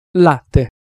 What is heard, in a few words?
"latte", Italian Pronunciations